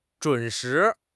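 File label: "zhun3 shi2", Mandarin Chinese; HSK4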